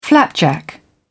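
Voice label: "flapjack", British English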